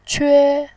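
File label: "que", Mandarin Chinese, first tone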